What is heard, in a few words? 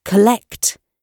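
"collect", British English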